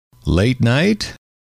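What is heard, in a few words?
late night, male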